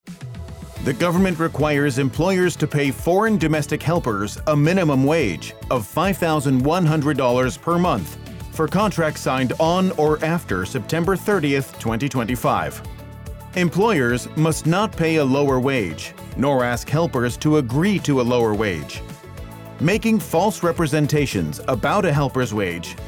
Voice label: English; Underpaying FDHs is a serious offence